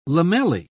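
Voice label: "lamellae", British English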